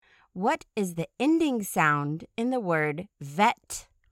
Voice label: "vet", ending sound

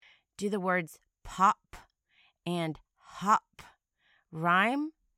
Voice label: pop hop

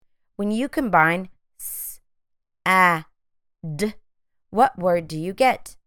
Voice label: s ă d phonemic blending

